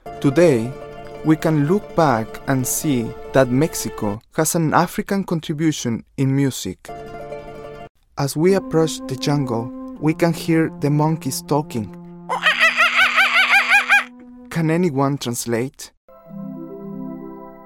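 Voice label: ESLA AccEN, Latin American Spanish accented English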